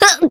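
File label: damaged, Japanese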